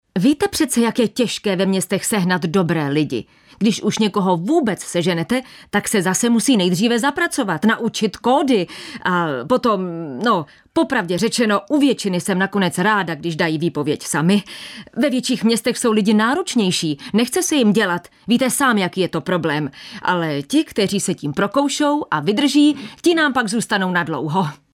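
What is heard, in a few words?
female Czech drama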